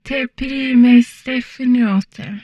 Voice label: humanoid female; Stellaris

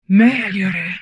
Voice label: humanoid female; Stellaris; humanoids

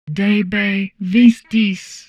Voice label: humanoid portrait female; Stellaris; humanoids

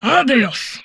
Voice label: humanoid portrait female; Stellaris; humanoids

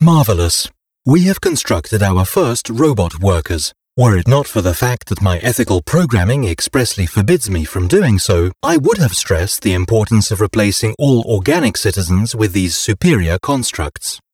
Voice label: robot pops success, tutorial; Stellaris

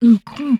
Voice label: humanoid female; Stellaris

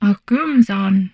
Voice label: humanoid female; Stellaris

